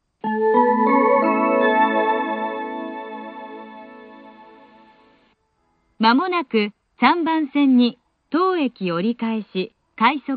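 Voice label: platform 3 approaching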